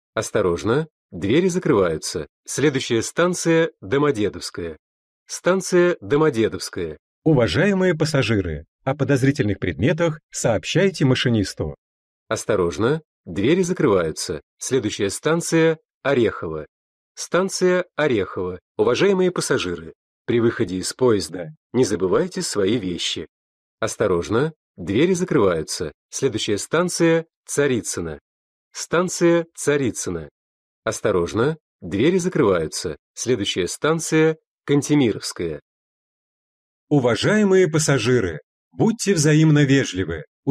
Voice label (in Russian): diktor v moskovskom metro zamoskvoreckaya liniya